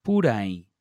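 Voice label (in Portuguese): "porém", português europeu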